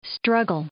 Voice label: "struggle", English